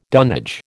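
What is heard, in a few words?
"dunnage", American English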